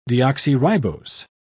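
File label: "deoxyribose", British English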